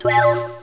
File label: "twelve", speech; robotron